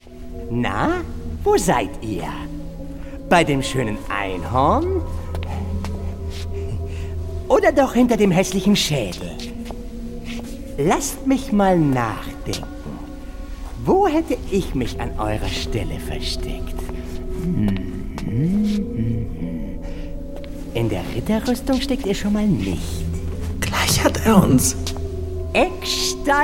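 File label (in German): Hörspiel; die drei kids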